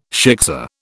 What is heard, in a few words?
"shiksa", British